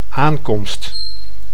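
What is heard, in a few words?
"aankomst", Dutch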